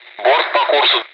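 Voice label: Speech Sound Russian Common air traffic control Messages; ATC; traffic bearing; DCS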